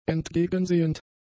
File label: "entgegensehend", German; adjectives